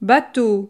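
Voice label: "bateau", French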